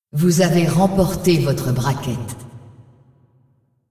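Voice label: announcer female tournaments win bracket generic, es ar; fr fr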